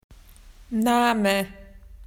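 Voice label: "Name", Australian English; PictureDictionary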